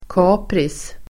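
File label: "kapris", Swedish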